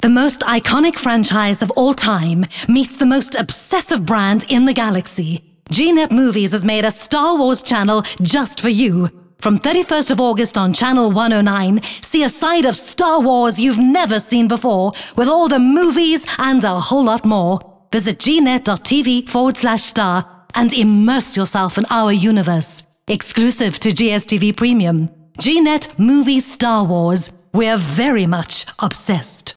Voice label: English Skill Promo